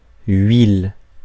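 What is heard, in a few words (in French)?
"huile", French; Mots francais phonemes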